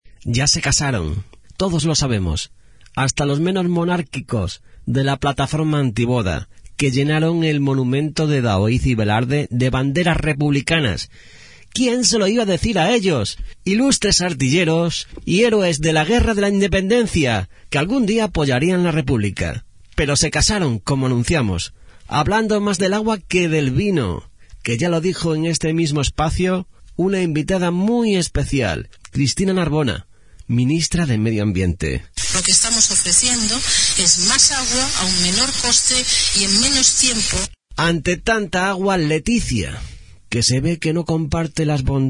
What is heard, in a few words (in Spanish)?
boda real informativo